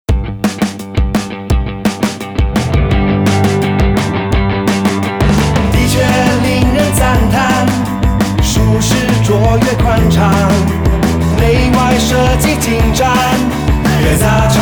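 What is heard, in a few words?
Mandarin, Cheerful; Perodua D63D; 30s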